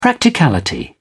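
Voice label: "practicality", English, British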